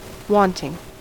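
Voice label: "wanting", British English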